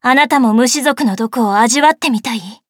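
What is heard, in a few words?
start, Japanese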